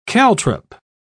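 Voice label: "caltrop", British English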